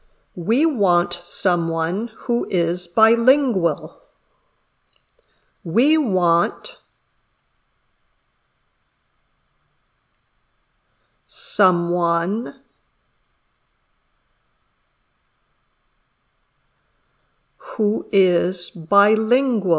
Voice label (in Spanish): Dictado